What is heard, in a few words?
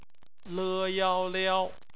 "liao", Chinese; phonetics; bpmf